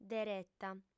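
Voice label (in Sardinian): "dereta", female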